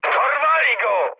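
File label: Polish aircraft destroyed mood high; speech; War Thunder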